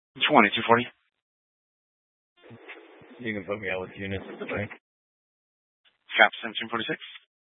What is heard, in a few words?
Mesa County Sheriff Dispatch